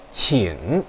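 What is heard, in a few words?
"qing", Mandarin Chinese, third tone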